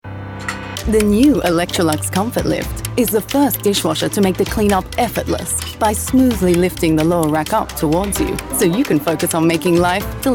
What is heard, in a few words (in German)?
eng werbung